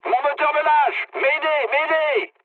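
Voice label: French damaged engine mood med; War Thunder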